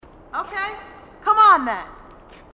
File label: marine sassy